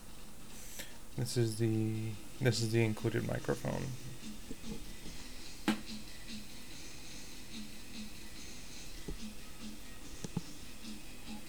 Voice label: micromemo test with included mic; xtrememac